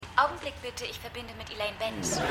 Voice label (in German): Telefonstimme, Stimmproben; Seinfeld